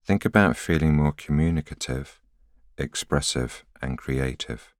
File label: voiceover